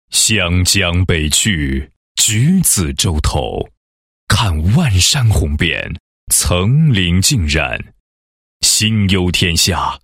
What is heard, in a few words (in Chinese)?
男, 企业宣传; 鸿扬家装20周年宣传